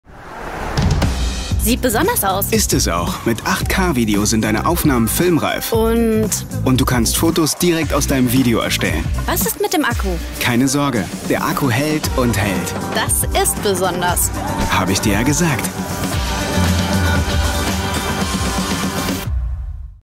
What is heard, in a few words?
TV-, FUNK- & WEB-SPOT; Samsung Galaxy S21